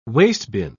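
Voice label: "wastebin", British English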